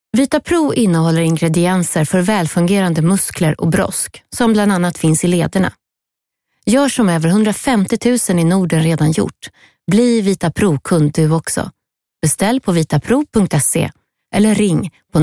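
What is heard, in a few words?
Swedish female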